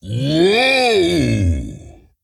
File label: Ogre Emote WOW; Boulderfist